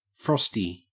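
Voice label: "frosty", Australian English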